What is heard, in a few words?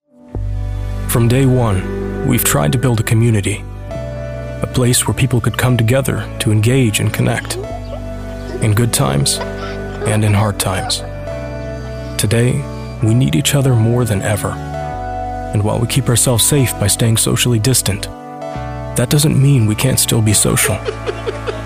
Commercial